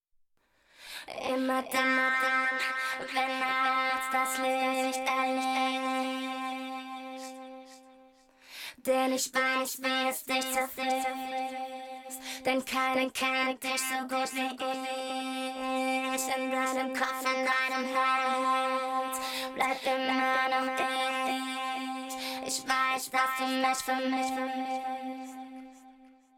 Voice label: robo delay; tc-helicon voicelive play